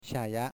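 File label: "thanks", Shanghainese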